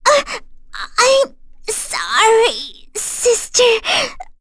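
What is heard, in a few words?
Vox Dead, English; kingsraid; voices heroes en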